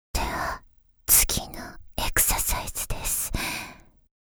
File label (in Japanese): 「では、次のエクササイズです」（ささやき）